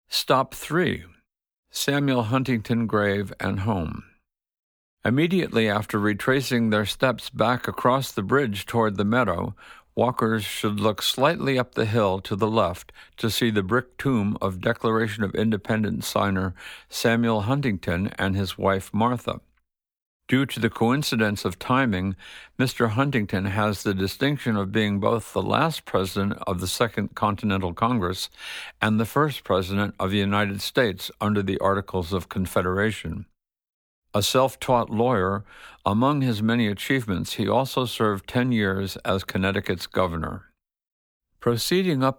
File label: Narration; Samuel Huntington